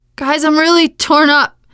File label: going to die, teengirl, voice